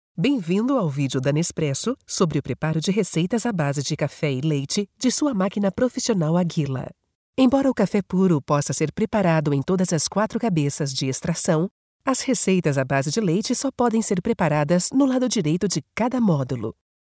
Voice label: locutora brasil brazilian voiceover web

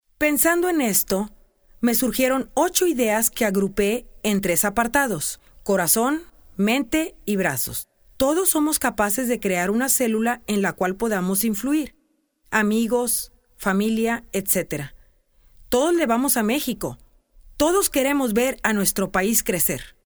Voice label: locutora mexicana

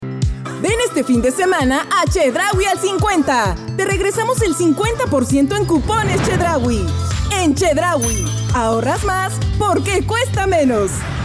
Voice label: locutora mexicana mexican voiceover; locutora de México; locutores de México

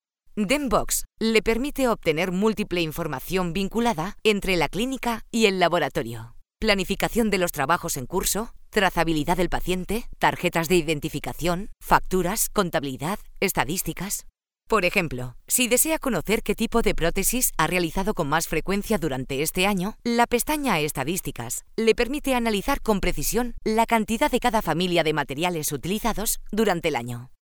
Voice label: locutora spanish voiceover, locutora española; locutora de vídeo tutorial

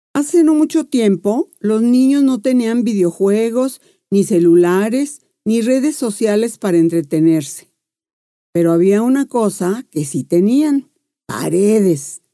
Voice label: locutora mayor mexican voiceover

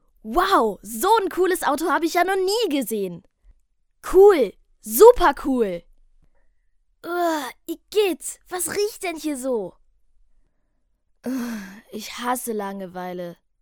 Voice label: locutor niño aleman german child voiceover; voz de niño alemán

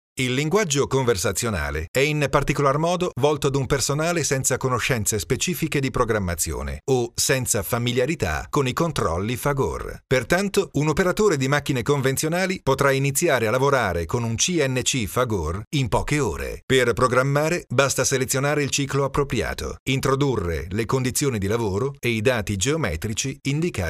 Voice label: locutor italiano video empresa; italian voice talent, recorded this industrial video; italian voice talent, recorded this industrial video for "Fagor"

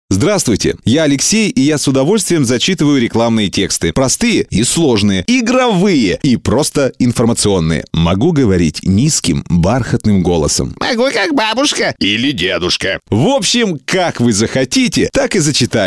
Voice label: locutor ruso

